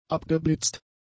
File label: "abgeblitzt", German; adjectives